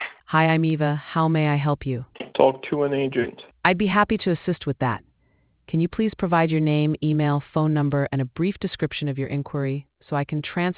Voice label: conversation